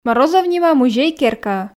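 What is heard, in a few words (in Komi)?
морозов нима музей-керкаӧ, Komi, female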